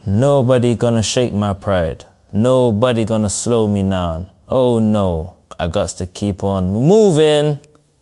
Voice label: happy, speech; fertig classic games